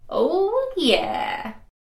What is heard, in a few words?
yeah female